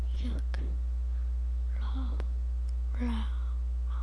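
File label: "low", spoken word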